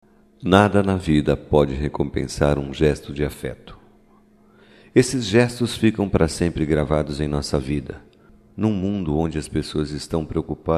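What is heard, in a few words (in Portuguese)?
AGRADECIMENTO NEUTRO, Só Voz, masculino; Telemensagens; Telemensagens 2017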